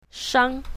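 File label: "shang1", Chinese pinyin, south, female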